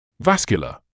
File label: "vascular", medical English